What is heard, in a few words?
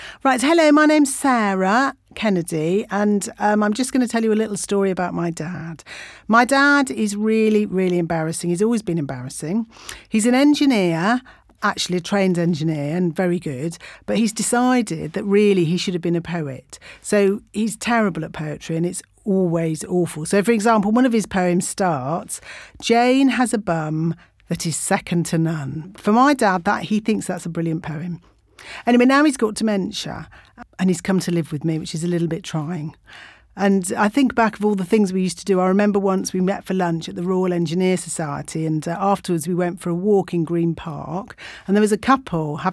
Acting Voice reel